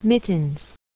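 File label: "mittens", English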